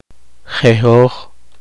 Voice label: poqomchi; cortado pacayas